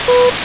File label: "yes" noise; asr testing